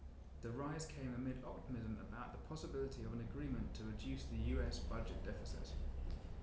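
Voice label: Real Data far male, reverberant environments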